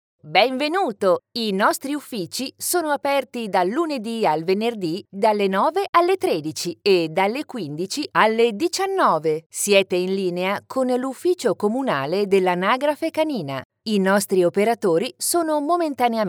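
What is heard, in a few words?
Italian, female, interactive voice response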